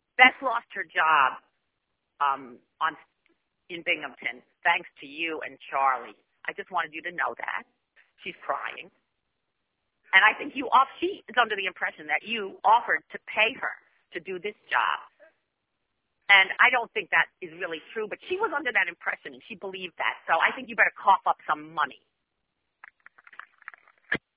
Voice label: voicemail